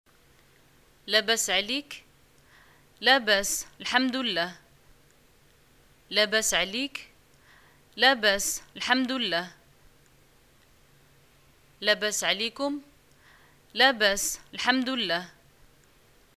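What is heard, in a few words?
greeting how are you, Moroccan arabic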